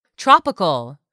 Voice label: "TROPICAL", English; 3000 words